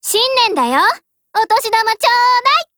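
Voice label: NEWYEAR, Japanese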